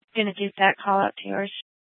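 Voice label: Police Department Dispatch, scanner; Mesa PD; Fiesta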